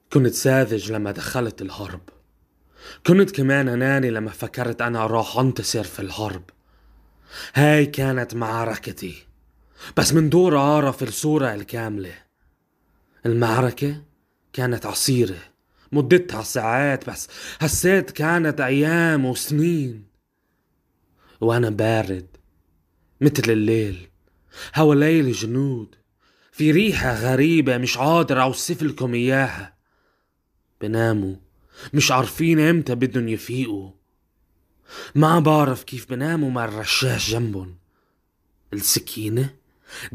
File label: Arabic Language